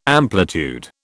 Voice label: "amplitude", British